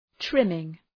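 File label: "trimming", English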